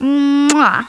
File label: woman special